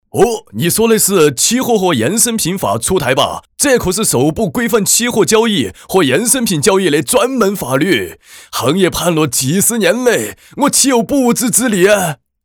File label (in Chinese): 男 四川话 mg角色 掌柜